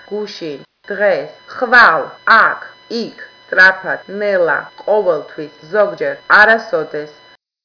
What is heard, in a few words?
georgian